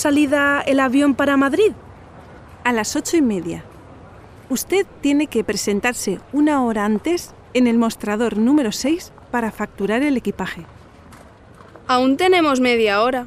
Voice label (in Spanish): Diálogo en aeropuerto